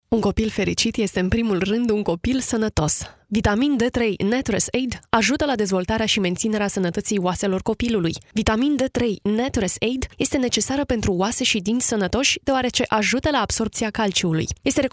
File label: Moldavian female